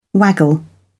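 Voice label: "waggle", British English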